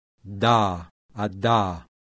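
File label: laminal voiced alveolar plosive; IPA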